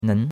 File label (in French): "nen2", chinois; Cours-de-Chinois